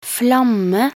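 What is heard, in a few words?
"flamme", Norwegian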